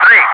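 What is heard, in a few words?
"3", English speech, Wingman; loud; DCS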